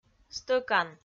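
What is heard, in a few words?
"стӧкан", Komi-Zyrian, female; audio dict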